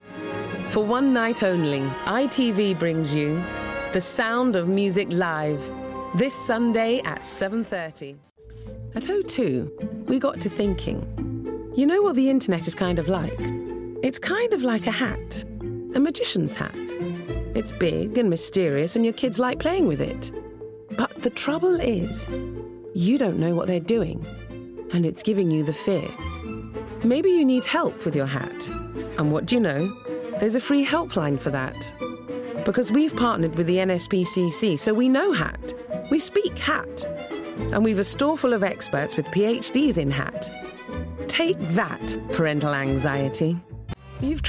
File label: Commercials